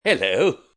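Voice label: "Hello" British male; Greetings; VoiceBox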